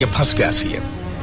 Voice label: Meme Ringtone